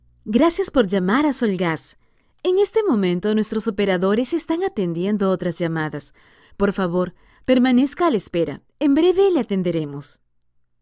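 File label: Spanish IVR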